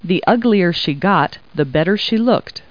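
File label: woman; Talking Clip Words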